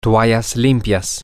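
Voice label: clean towels, spanish, male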